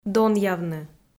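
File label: "донъявны", Komi, female; kpv audio dict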